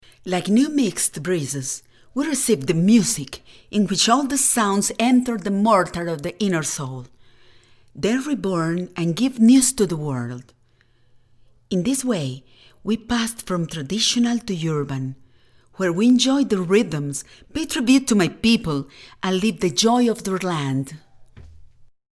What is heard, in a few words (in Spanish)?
Voz Femenina Inglés